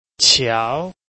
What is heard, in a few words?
"qiao2", Chinese